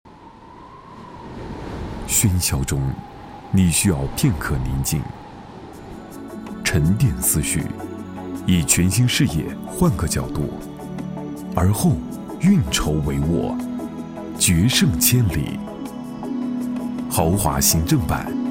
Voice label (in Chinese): 男 广告 时尚沉稳, 暖声; 奥迪